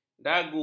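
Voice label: "dagu", Malay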